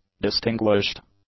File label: "distinguished", English; adjectives